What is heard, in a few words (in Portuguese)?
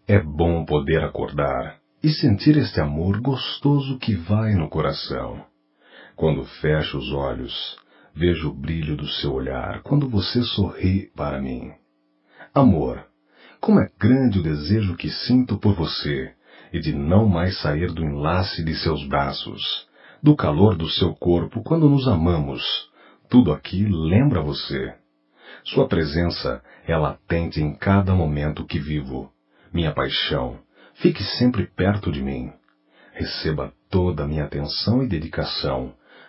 Tele Mensagem Fogo e Paixao (Voz Masculina; COMEMORATIVAS